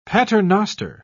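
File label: "paternoster", British English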